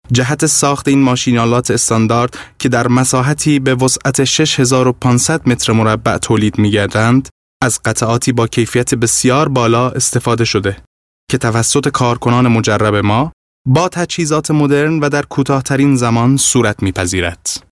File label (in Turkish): FARSÇA DARVİŞ